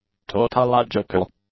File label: "tautological", English; adjectives